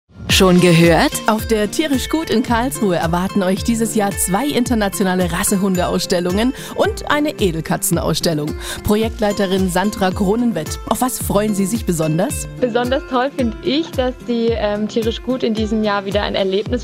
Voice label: infomercial; tierisch gut verpackt